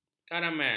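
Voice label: "karamel", Malay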